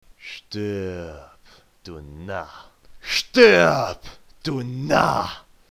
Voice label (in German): Narr